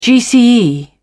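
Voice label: "gce", British English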